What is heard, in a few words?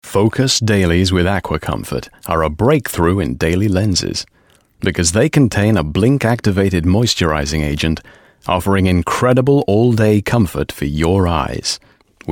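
english male, voice samples commercial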